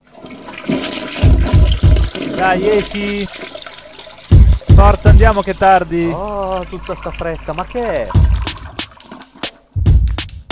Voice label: spot; Hackit 2014; hackmeeting; warmup dataseal diybs